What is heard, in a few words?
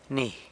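"ni", San Lucas Quiaviní Zapotec; who, that, which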